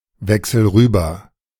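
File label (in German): "wechsel rüber", German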